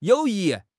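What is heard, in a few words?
"you3 yi4", Mandarin Chinese; HSK4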